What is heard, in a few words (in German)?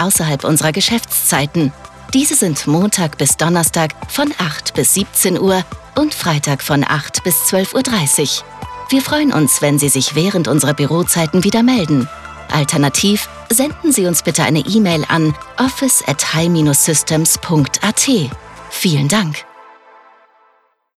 TEL Sicherheitstechnik Nachtschaltung; voice; Hi-Systems